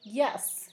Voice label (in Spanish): catrín, Zapoteco, San Pedro Mártir; Humano; INALI